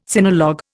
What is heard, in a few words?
"sinologue", Indian